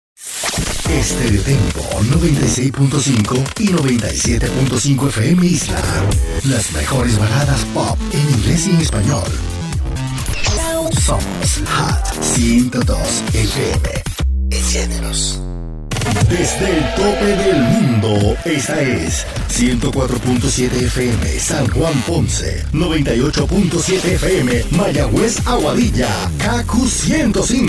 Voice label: LATAM Spanish Male Radio Imaging; AC-HOT-URBAN

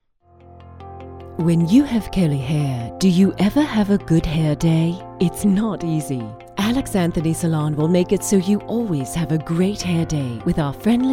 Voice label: Foreign British

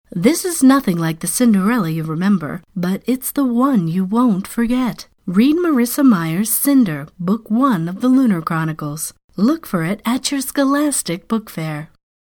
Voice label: English Sample

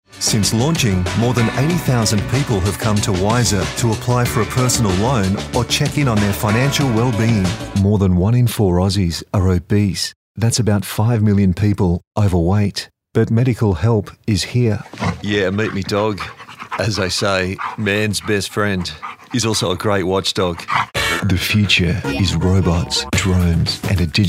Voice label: Explainer Elearning

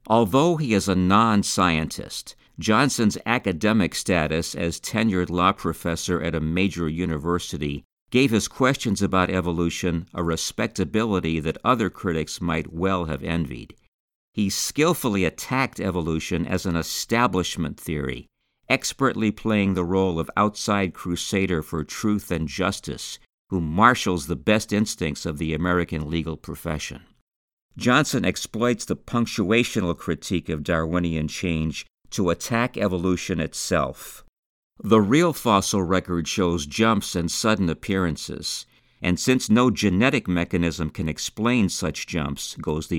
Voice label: Non-fiction Audiobook Narration

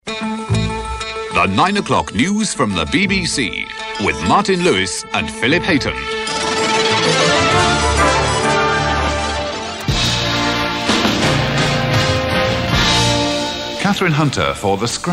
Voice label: TV voiceovers

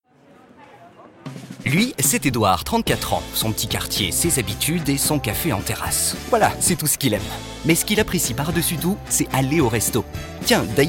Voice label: CORPORATE WEB; La Fourchette

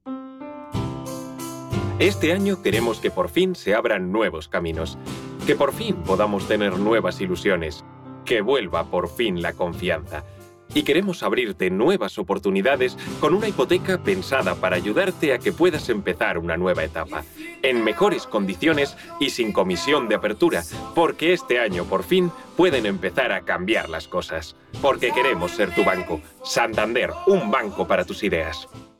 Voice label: Bank Ad

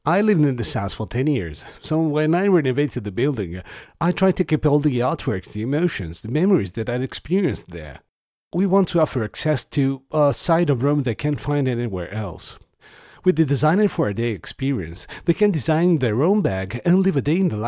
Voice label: English; Designer For A Day

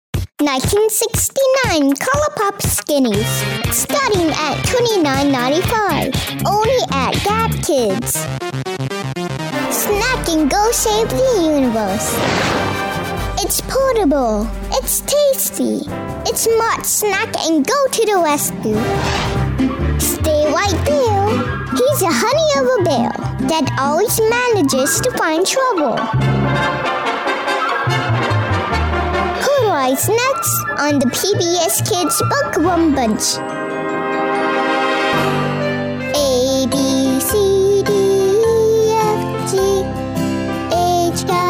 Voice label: voice over

